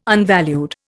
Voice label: "unvalued", Indian